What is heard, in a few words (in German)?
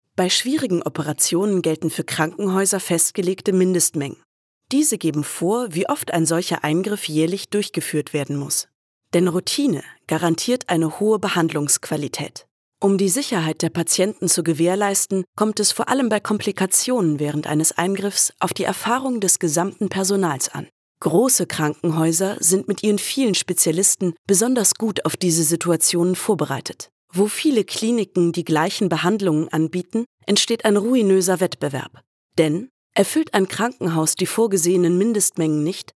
Erklaerfilm medizin